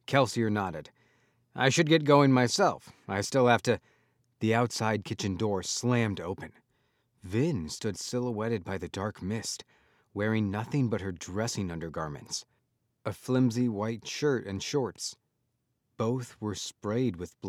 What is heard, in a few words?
Fiction Fantasy Dystopian MF Dialogue; audiobooks